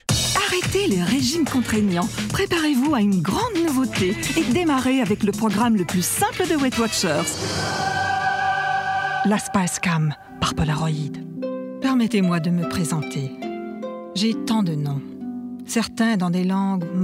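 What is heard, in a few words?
French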